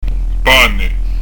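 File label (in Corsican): "pane", Corsican; Son "appuyé"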